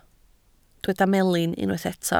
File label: "melin", Welsh